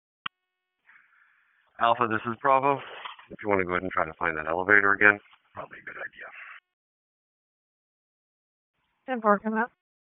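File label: Public Safety Tactical, p25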